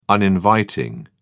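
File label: "uninviting", British English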